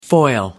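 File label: "foil", English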